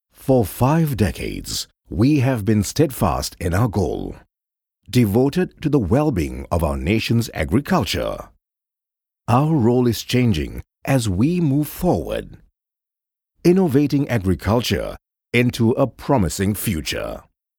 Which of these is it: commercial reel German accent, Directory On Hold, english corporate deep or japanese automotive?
english corporate deep